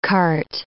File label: "cart", English